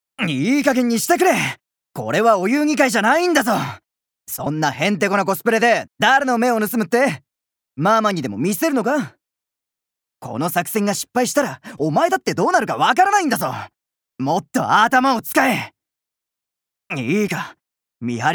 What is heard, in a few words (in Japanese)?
外画